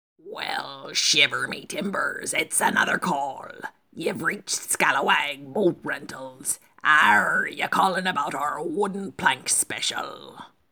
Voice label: Pirate